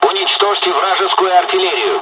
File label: ground attack, dialogs russian; War Thunder